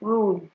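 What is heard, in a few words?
"rule", Nigerian Pidgin, female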